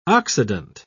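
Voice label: "oxidant", British English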